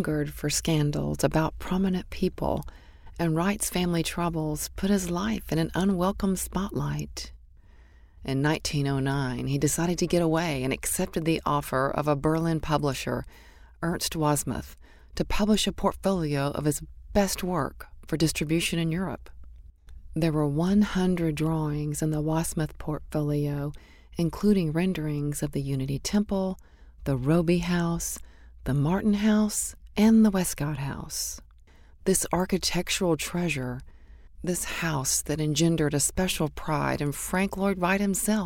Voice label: voice over professional